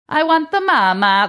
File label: saying i want the mama faux italian accent